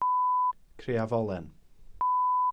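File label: "criafolen", Welsh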